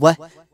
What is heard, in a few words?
"what", Voice